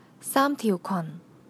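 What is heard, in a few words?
"tiu4 kwan4", Cantonese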